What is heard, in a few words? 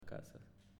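"Casas", Spanish, male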